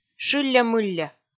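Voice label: "шылльӧ-мылльӧ", Komi, female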